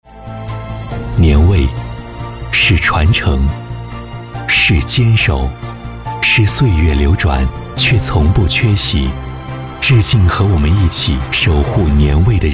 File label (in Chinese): 男 大气; 五粮液2019